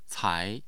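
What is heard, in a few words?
"cai", Mandarin Chinese, tone 2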